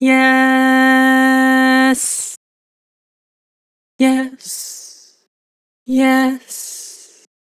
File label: "Yes", Vocals, Vibrato; Harmor Vocals